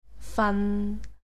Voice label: "fan6", Cantonese jyutping